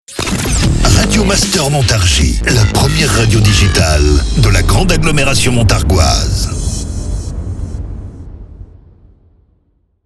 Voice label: Sweeper MEDIUM; Radio Master Montargis; Habillage